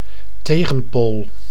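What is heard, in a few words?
"tegenpool", Dutch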